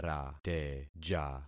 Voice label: "rateja", concat syllables; Affixing experiment